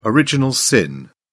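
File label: "original sin", British English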